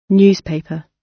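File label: "newspaper", British English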